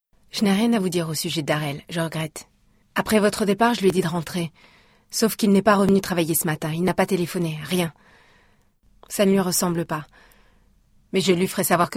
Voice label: female french book comercial; ideasonora

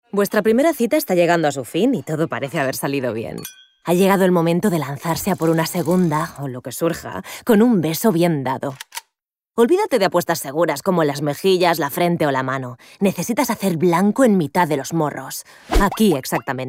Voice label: female spanish kiss comercial

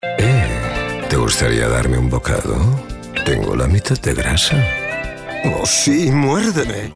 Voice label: male spanish food comercial; ideasonora

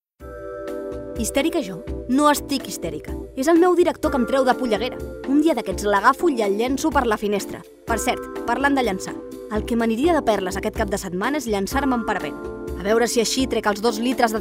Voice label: female catalan outlet comercial

